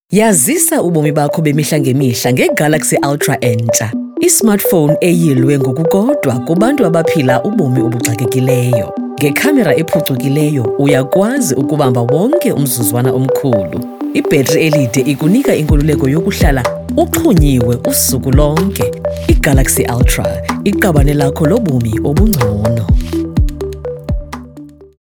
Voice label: XHOSA

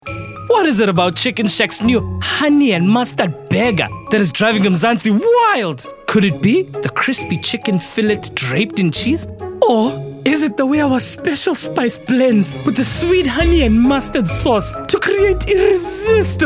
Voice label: F Ekasi Style

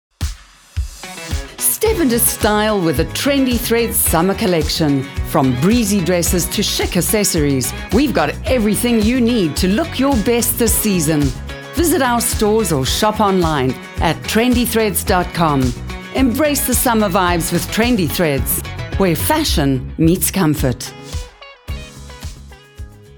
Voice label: Medium Sell Trendy; South Africa; Trendy Threads

